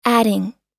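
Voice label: "adding", US English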